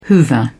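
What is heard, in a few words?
"hoover", British English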